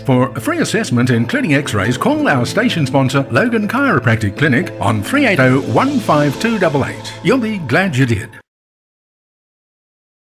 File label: Radio Ad, Asthma